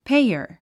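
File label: "payer", English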